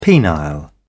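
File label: "penile", English, British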